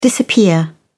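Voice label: "disappear", British English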